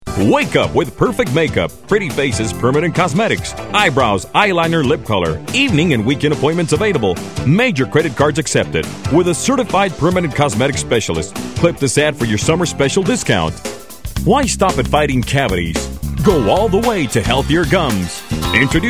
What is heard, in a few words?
English, males